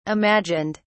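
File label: "imagined", British English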